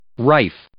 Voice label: "rife", English